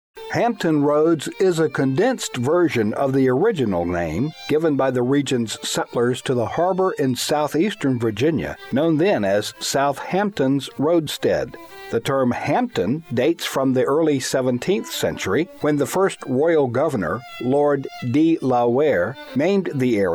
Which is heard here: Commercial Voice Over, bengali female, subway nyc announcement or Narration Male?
Narration Male